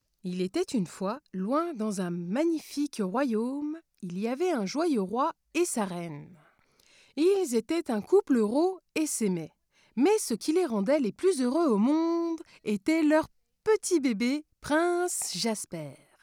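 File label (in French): French, female, character; voice demos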